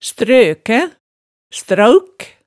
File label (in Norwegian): "strøkæ", hemsedalsmaalet, tale